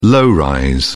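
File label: "low rise", British English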